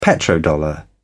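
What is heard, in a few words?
"petrodollar", English, British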